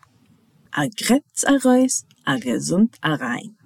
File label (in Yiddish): A grepts aroys a gezunt arayn, Jiddish